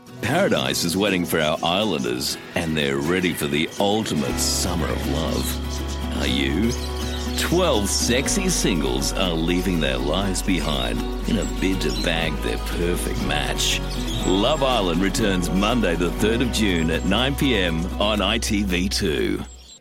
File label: english australian tv shows